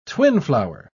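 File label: "twinflower", English, British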